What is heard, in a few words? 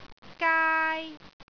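"gaai1", Cantonese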